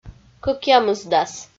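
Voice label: "кӧкъямысдас", Komi-Zyrian, female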